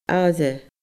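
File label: "aire", Scottish Gaelic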